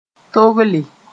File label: "togle", Mooré